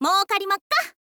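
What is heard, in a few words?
"moukarimakka", Japanese, girl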